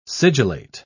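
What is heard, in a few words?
"sigillate", British English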